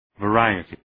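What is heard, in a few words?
"variety", English word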